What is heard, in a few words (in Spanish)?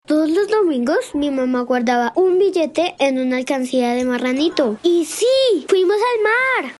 locución infantil